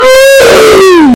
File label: oof loud ringtone